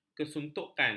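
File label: "kesuntukan", Malay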